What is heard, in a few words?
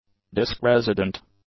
"disk-resident", English; adjectives